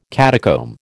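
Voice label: "catacomb", American English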